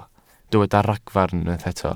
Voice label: "ragfarn", Welsh

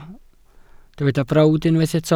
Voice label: "brawd", Welsh

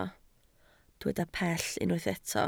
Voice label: "pell", Welsh

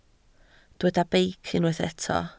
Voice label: "beic", Welsh

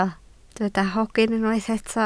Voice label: "hogyn", Welsh

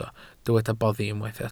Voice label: "boddi", Welsh

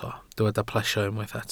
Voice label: "plesio", welsh

